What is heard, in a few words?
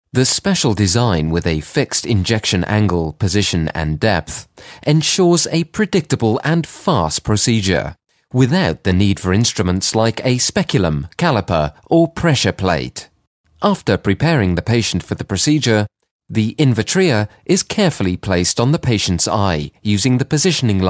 uk elearning